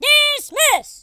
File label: fatality, Drill Sergeant, speech